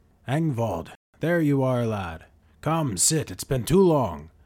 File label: Dwarven NPC with authentic dwarven character and robust personality, Audiobook NPC; Thrain